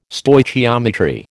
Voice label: "Stoichiometry", American

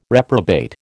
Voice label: "reprobate", American

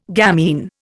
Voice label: "gamine", Indian

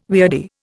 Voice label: "weirdie", Indian

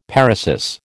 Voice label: "paresis", American

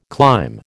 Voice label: "climb", American

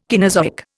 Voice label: "Caenozoic", Indian

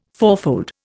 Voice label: "fourfold", Indian

